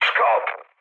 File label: enemy detected mood med, speech pol; War Thunder